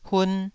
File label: "hun2", taiwanese